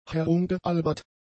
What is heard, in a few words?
"herumgealbert", German; adjectives